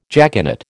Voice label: "jaconet", American